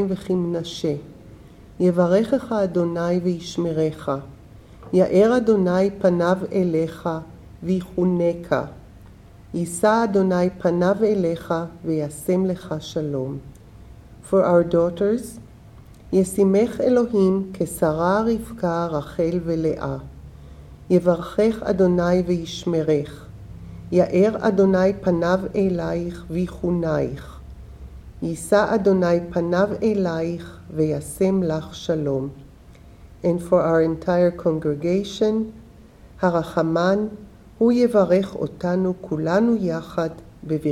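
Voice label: Parents Bless Their children, female; Shabbat Evening Readings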